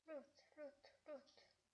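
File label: "lute", spoken word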